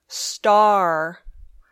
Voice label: "star", English